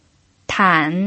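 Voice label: "tan", Mandarin Chinese pinyin, third tone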